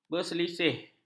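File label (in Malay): "berselisih", Malay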